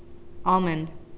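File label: "almond", Canadian, female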